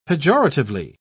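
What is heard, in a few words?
"pejoratively", English, British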